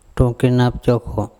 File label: "ThongkenapcOkho", Andamanese; my thumb